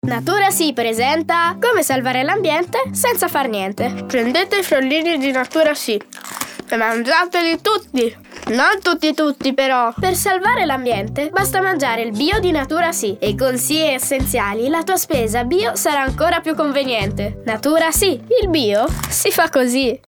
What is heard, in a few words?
Spot; NaturaSi